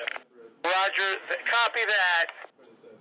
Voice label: roger copy that